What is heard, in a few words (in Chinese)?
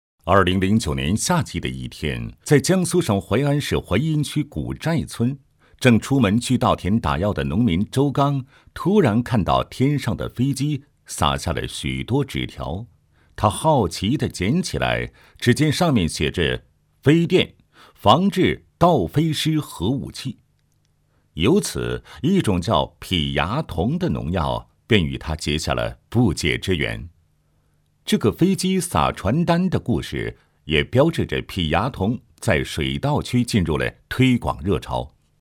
男 讲解感